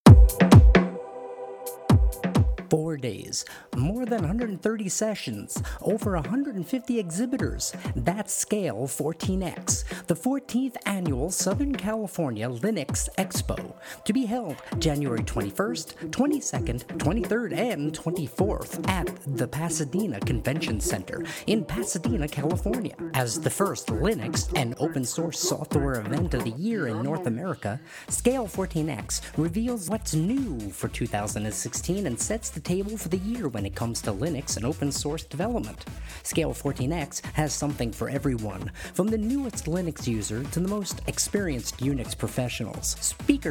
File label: Podcast Promo; SCALE 14X